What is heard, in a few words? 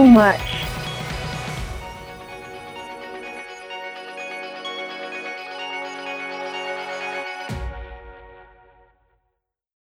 Catholic Radio Testimonial